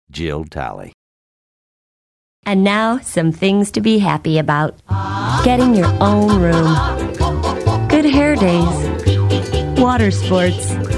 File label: commercial; Innovative LA